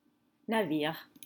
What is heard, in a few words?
"Navires", French